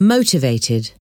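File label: "motivated", English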